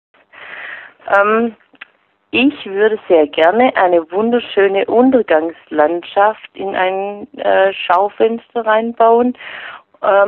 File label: Voicemail